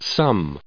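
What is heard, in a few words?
"some", US English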